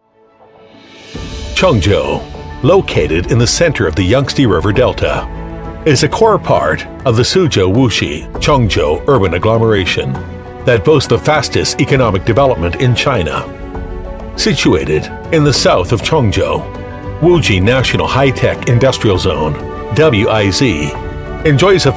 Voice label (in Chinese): 宣传片, 大气; 江苏常州